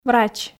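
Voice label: "врач", Komi, female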